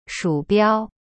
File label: "鼠标" shu biao, chinese